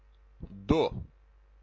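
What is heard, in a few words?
"du", Lithuanian, male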